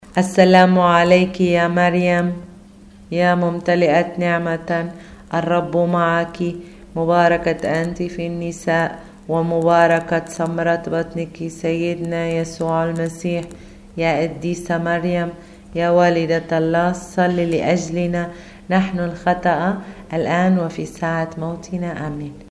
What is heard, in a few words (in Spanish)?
ave maria arabe normal